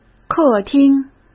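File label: "客厅", Mandarin Chinese; hsk; chinese-flashcards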